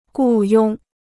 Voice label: "雇佣", Chinese

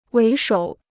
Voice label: "为首", Chinese

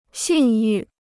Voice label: "信誉", Chinese

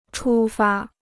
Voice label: "出发", Chinese

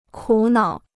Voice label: "苦恼", Chinese

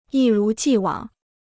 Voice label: "一如既往", Chinese

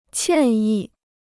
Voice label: "歉意", Chinese

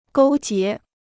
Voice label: "勾结", Chinese

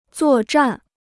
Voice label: "作战", Chinese

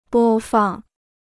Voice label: "播放", Chinese

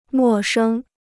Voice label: "陌生", Chinese

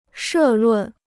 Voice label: "社论", Chinese

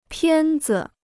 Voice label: "片子", Chinese

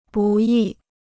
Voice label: "不易", Chinese

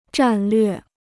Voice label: "战略", Chinese

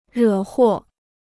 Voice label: "惹祸", Chinese; to invite disaster

